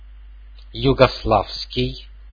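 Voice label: "югославский", Russian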